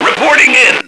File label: report in, radio, male